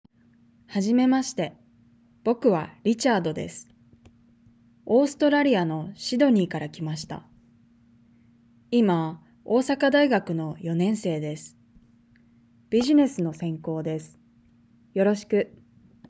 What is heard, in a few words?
Reading natural; Nakama; OJAD